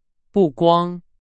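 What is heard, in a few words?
Chinese; hsk3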